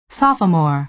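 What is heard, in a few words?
"sophomore", American English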